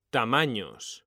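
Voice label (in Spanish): "tamaños", Spanish; mekanta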